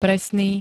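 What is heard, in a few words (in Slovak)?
"presný", Slovak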